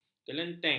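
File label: "kelenteng", Malay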